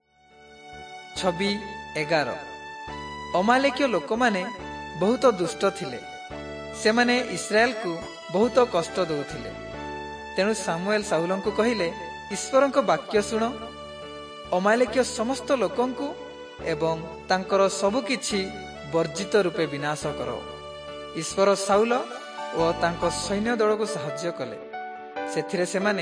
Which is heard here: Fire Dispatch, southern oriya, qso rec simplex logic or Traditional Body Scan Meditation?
southern oriya